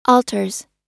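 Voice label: "alters", US English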